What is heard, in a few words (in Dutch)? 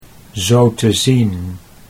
zo te zien, Dutch